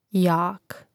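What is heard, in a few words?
"jak", Croatian; mreznik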